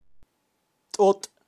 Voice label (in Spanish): "tot" caracol, kaqchikel, pronunciacion de animales